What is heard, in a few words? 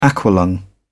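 "aqua-lung", British English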